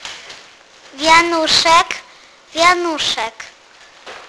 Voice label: wreath, wianuszek, Polish